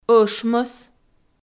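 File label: "ӧшмӧс", Komi, female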